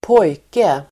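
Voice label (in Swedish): "pojke", Swedish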